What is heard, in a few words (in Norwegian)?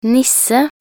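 "nisse", Norwegian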